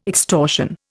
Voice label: "extortion", Indian

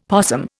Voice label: "possum", Indian; Dictionary

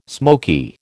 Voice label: "smoky", American

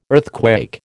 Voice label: "earthquake", American

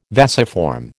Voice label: "vasiform", American

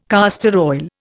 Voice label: "castor oil", Indian

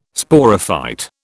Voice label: "Sporophyte", British

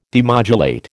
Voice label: "demodulate", American

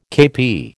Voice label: "kepi", American

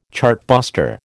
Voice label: "chartbuster", American

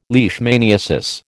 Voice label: "leishmaniasis", American

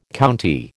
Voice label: "county", American

